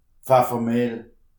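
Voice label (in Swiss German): "pfeffermiehl", Alsatian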